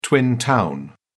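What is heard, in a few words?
"twin town", English, British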